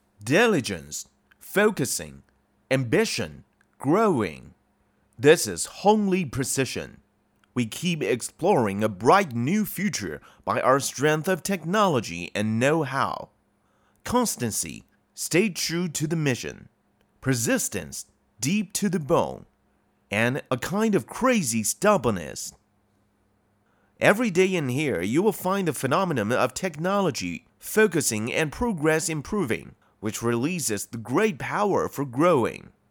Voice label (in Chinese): 英男 科技公司宣传片